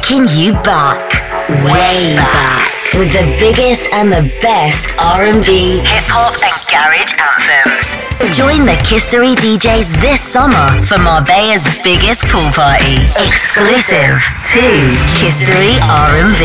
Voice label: Radio Imaging Showreel